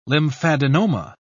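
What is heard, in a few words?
"lymphadenoma", British English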